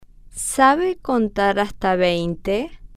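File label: sabe Contar Hasta Veinte, Spanish, female, slow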